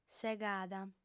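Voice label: "segada", Sardinian, female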